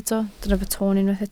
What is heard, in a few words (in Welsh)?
"toon" Welsh